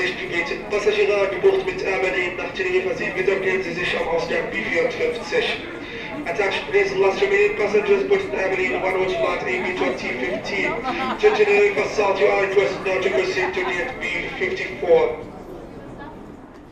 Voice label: Flug, Flughafen Ansagen; Air Berlin

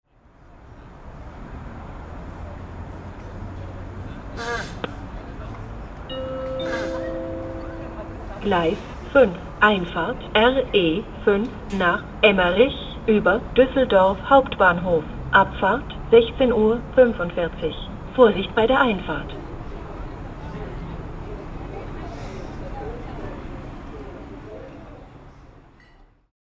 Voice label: RE5 nach Emmerich, Bahnhofs Ansagen

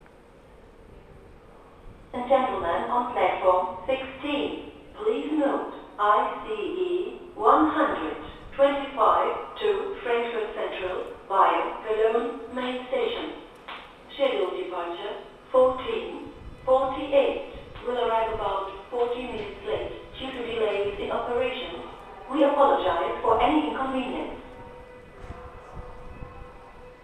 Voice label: ICE Verspaetung ca 40 min EN

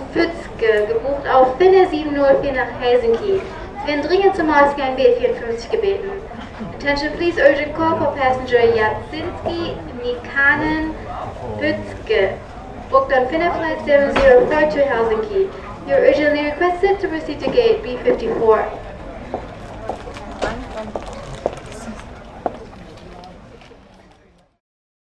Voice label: dringender Aufruf, Flughafen Ansagen; FinnAir

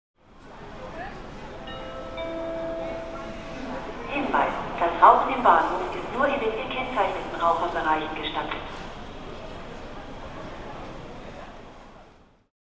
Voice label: das Rauchen im Bahnhof, Bahnhofs Ansagen

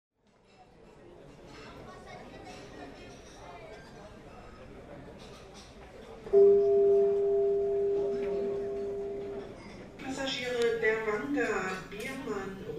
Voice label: die Passagiere dringend nach Ausgang, Flughafen Ansagen

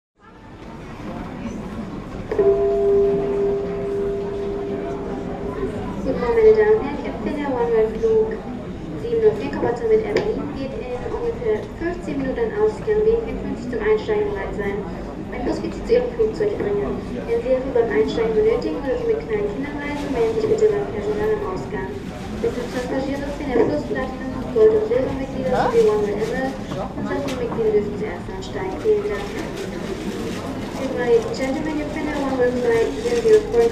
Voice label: Flughafen Ansagen; Air Berlin

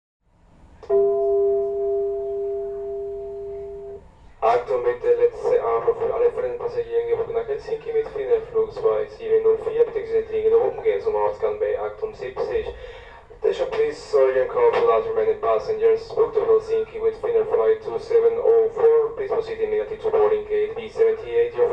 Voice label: Flug, Flughafen Ansagen; Finnair

